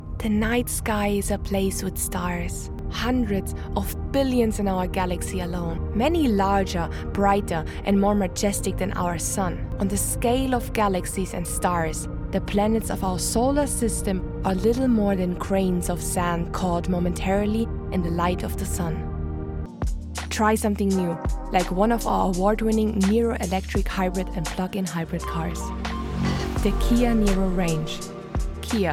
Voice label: English